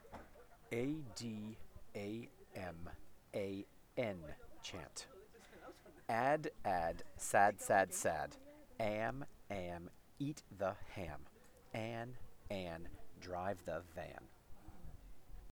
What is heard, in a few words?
ad, am, an chant